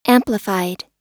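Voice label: "amplified", US English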